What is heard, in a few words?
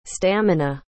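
"stamina", English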